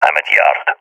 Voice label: at yard, balkan; vo; balkan epic